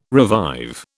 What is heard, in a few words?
"revive", British